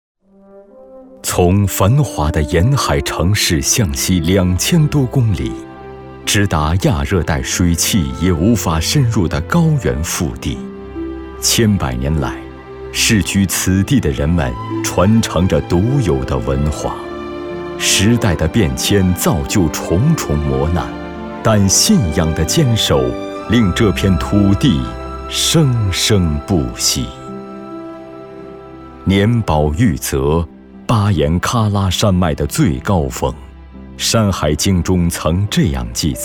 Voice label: 男 纪录片 果洛

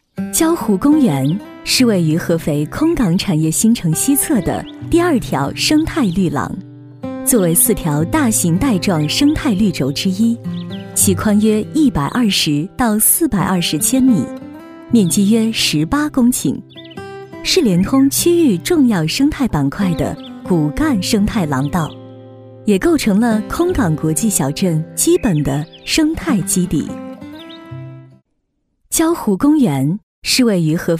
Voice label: 女 专题 设计方案

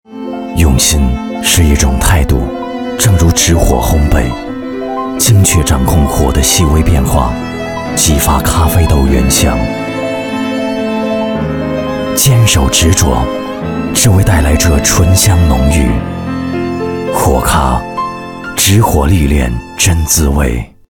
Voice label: 男 广告, 国语男声配音

